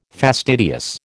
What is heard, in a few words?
"fastidious", American